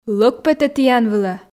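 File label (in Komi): лӧг петӧ тіян вылӧ, Komi, female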